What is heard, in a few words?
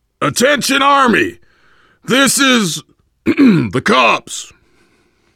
world farmhouse npc, voice, biker; Left 4 Dead 2; survivor